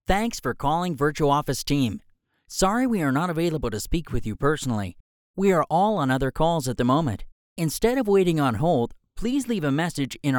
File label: Voicemail, VoiceOver